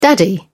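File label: "daddy", British English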